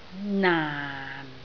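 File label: "naan4", Cantonese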